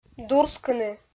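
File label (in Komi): "дурскыны", Komi, female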